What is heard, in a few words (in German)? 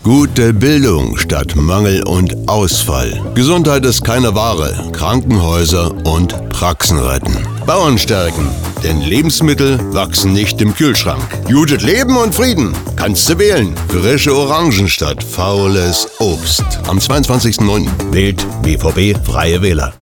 radiospot